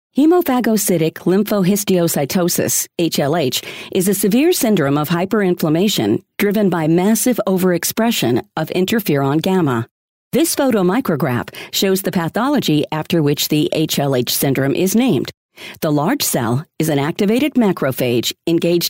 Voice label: Medical Narration